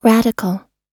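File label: "radical", US English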